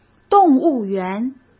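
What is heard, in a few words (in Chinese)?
"动物园", Mandarin Chinese; chinese-flashcards; hsk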